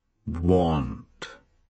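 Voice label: "want" British slow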